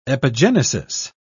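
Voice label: "epigenesis", British English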